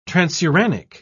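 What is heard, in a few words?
"transuranic", British English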